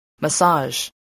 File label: "massage", spelling voice